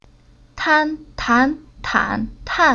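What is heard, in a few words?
"Tan", chinese syllable; Уроки фонетического блока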